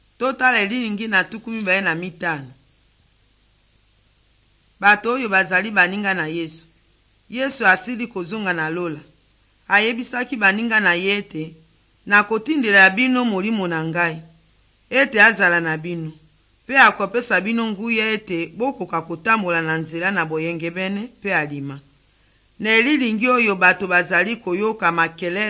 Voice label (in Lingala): good news lingala west, drama